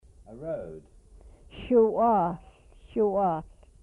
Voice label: "road", Twana